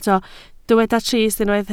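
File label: "trist" Welsh, spoken word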